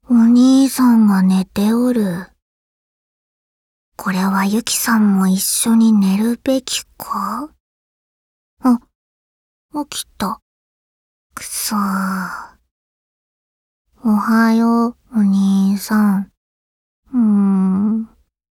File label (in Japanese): シチュエーションボイス; ユキさんシチュエーションボイス